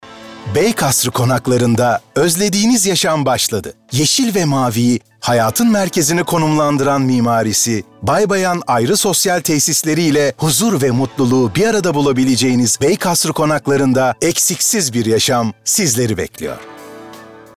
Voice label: Tanitim

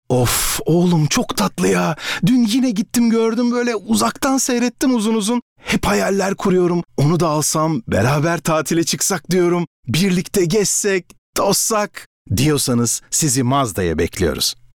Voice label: Komik

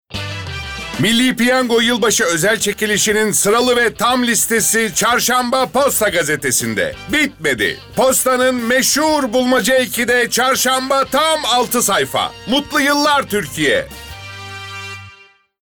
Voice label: Promosyon

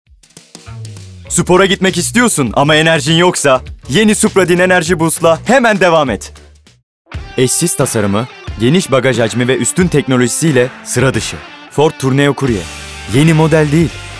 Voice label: Reklam

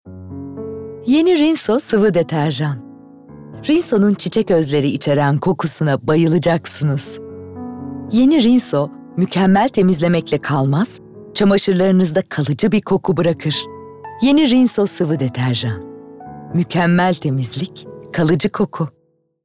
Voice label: Romantik

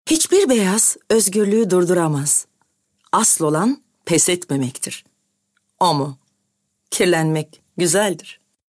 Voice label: Reklam Sicak Sakin

